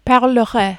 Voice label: "parlerais", French